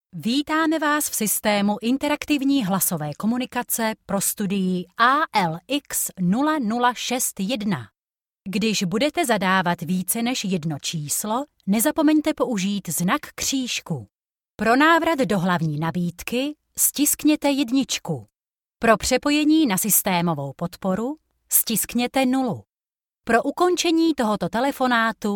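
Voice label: Czech, IVR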